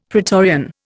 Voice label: "pretorian", Indian; Dictionary